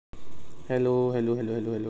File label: voice message